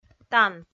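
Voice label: "тан", Komi, female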